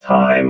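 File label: "time", robot voice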